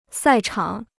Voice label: "赛场", Chinese

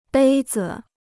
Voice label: "杯子", Chinese

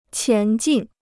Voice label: "前进", Chinese

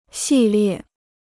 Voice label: "系列", Chinese

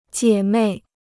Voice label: "姐妹", Chinese

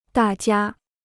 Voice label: "大家", Chinese; dà jiā; everyone; influential family

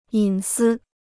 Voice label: "隐私", Chinese

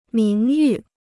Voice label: "名誉", Chinese

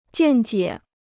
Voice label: "见解", Chinese

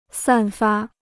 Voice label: "散发", Chinese

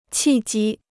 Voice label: "契机", Chinese

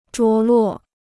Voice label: "着落", Chinese

